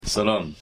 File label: persian salam hello